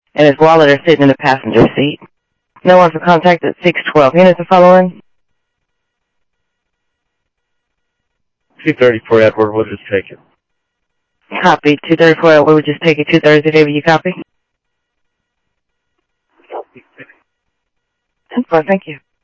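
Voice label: Phoenix police department, scanner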